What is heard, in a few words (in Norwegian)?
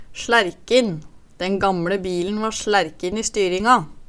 "slærkin", Numedalsmål